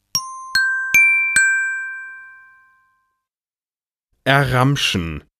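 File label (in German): "erramschen", German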